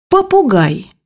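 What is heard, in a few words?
"parrot", Russian; bukvar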